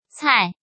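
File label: "cai", Chinese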